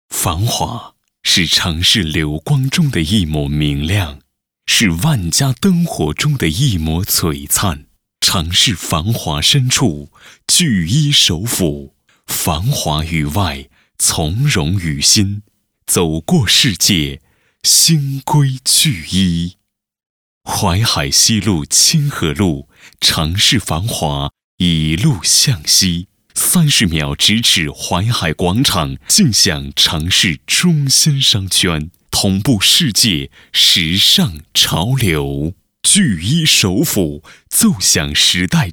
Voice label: 慢速 地产; 首府; 房地产

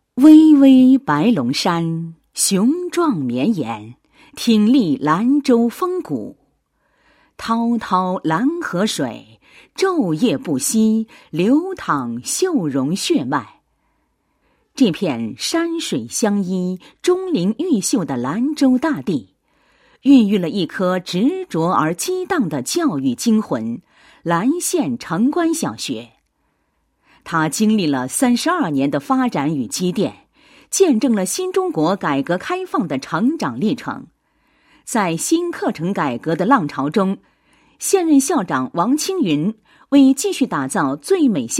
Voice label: 女, 配音案例; 学校; 城关小学校史

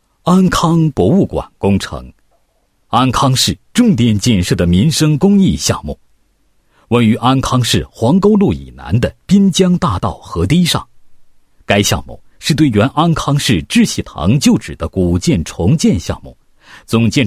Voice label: 男 解说; 某博物馆工程解说词（鲁班奖）

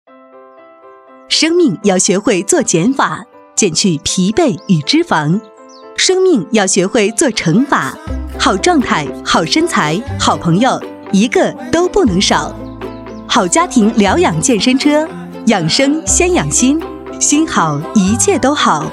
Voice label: 女 广告 健身试音 亲和自然 温馨时尚 肯定语气

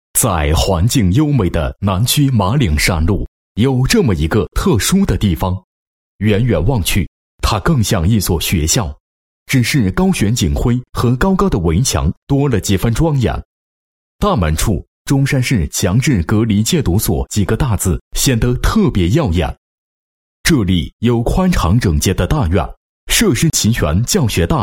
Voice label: 男 旁白 视频旁白; 强制隔离戒毒所

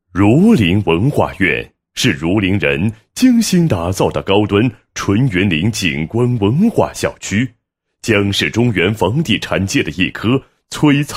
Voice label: 男 课件; 儒林文化苑优势分析

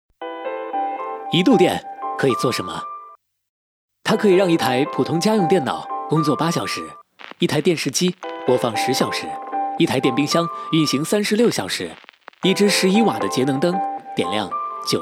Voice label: 节能减排公益广告

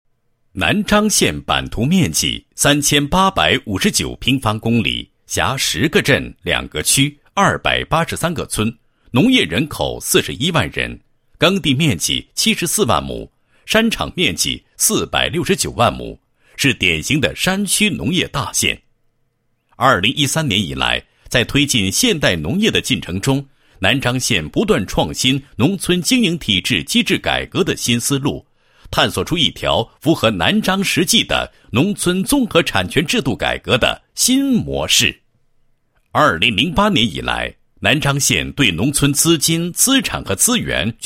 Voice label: 男 工作汇报 某县农村产权制度改革实践汇报

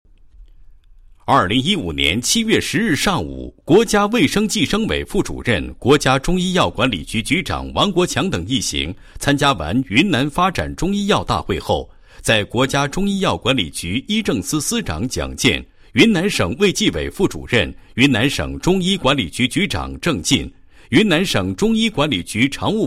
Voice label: 男 新闻类 配音, 国语男声配音

